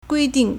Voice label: "gui1ding4", Chinese

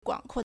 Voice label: "guang3kuo4", Chinese

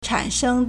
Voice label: "chan3sheng1", Chinese

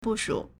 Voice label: "bu4shu3", Chinese

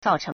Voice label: "zao4cheng2", Chinese

